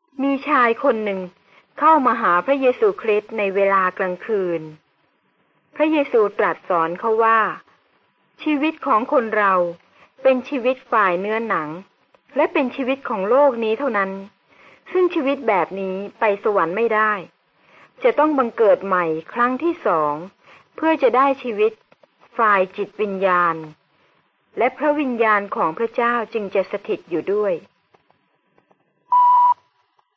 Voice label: good news short thai central